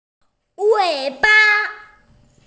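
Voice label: "wěi ba", Mandarin Chinese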